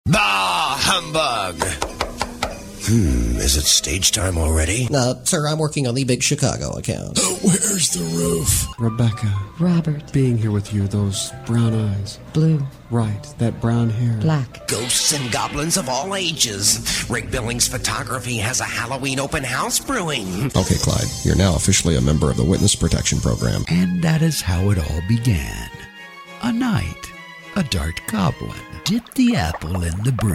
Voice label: Animation Character